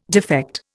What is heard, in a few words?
"defect", Indian